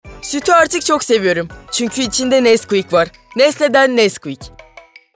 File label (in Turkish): Reklam